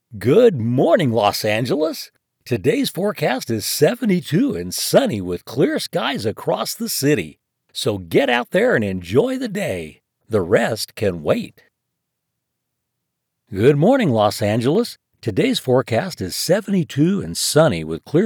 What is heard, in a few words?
Retro Radio announcer